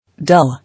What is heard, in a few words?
"Dull" English